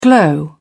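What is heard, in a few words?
"glow", English, British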